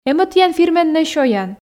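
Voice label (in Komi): "эм-ӧ тіян фирменнӧй сёян", Komi, female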